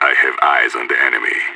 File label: radio enemy spotted; idf; vo